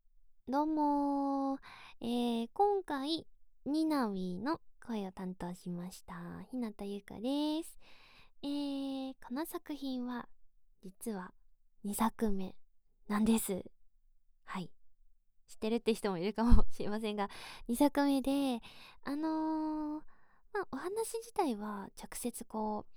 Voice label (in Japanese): フリートーク; 『キス』をするだけで身も心も回復できる世界で一緒に旅する穏やかヒーラーと毎日イチャあまべろちゅーえっちII